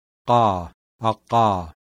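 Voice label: voiceless uvular plosive; IPA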